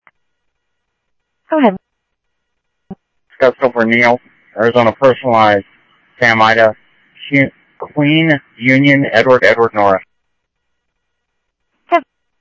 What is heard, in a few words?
PD Police, scanner audio